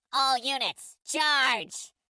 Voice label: charge droid, Voices Emotes